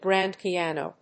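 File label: "grand piano", English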